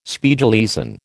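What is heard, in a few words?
"Spiegeleisen", American English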